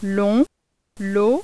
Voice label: fransk long lot

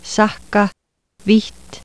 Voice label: islandsk; [ˈsahka viht]

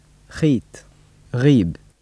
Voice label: tunesisk, tunesisk arabisk [χi:t ʁi:b]

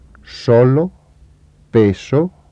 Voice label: spansk s, spansk [ˈs̠olo ˈpes̠o]

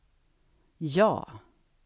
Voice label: svensk ja, svensk [ʝɑ:]

